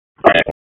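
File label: Raleigh Police Northeast District Tactical; Wake; p25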